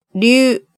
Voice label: "ryu", hiragana

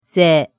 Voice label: "ze", hiragana

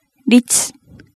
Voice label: "ritsu", hiragana

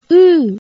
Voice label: "uu", hiragana

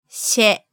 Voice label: "she", hiragana

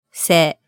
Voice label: "se", hiragana

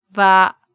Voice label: "va", hiragana

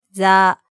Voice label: "za", hiragana

